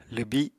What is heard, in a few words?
"lwbi", Apatani